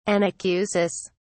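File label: "anacusis", British English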